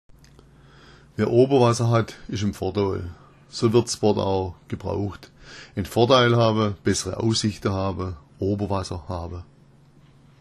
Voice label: oberwasser, schwaebisch

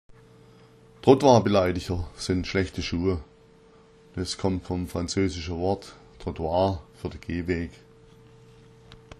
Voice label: trottwarbeleidiger, schwaebisch

